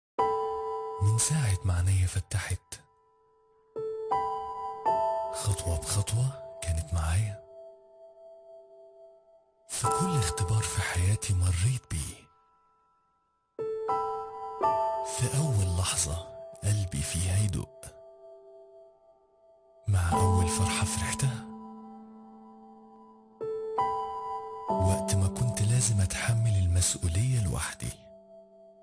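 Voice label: Arabic, male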